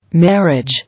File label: "Marriage", English